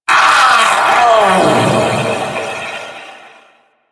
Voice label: Vocal Death